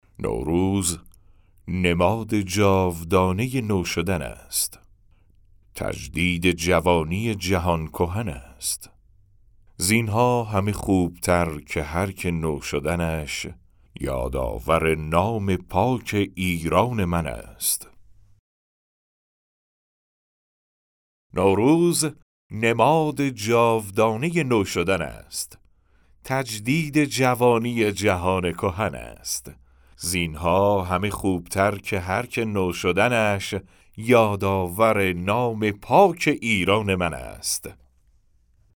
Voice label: Narration; nowruz